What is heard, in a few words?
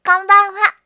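"konbanwa", Japanese; aisatsu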